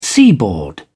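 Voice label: "seaboard", English, British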